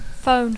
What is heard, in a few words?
"phone", English